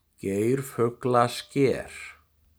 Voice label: Geirfuglasker pronunciation; Гейрфюгласкер